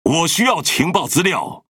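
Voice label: Chinese (Taiwan) Speech Quick Commands Need Scouting; World Of Warships; ZH TW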